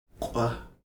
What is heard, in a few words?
"q̓v", Heiltsuk